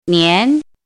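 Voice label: "nian", pinyin, second tone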